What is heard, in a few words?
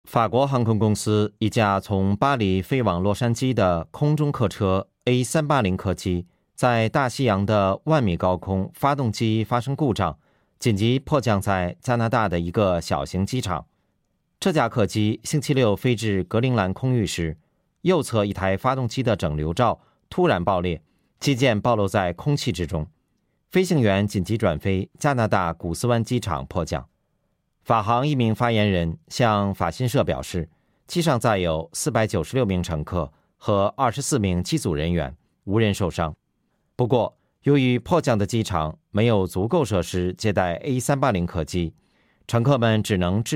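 Chinese Narrative; GoLocalise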